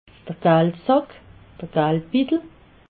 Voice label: "galdsack", alsace

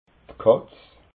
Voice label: "katz", alsace

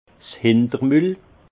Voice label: "hintermul", alsace; vin; arrière bouche

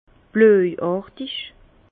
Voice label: "blojartig", Bas Rhin; vin; bleuâtre

